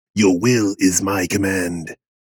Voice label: rogue custom line vocal your will is my command male 30s